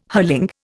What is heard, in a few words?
"hurling", Indian